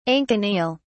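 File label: "anconeal", English, British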